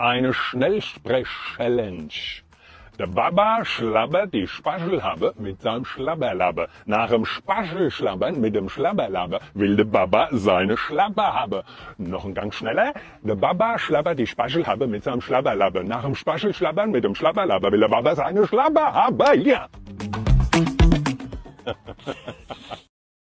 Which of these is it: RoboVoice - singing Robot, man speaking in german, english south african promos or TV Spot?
man speaking in german